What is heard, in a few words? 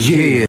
"yeah", group of men